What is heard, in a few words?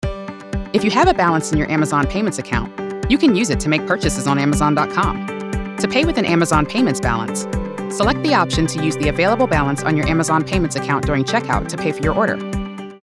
Informative Helpful Knowledgeable Explainer Corporate Narration; Amazon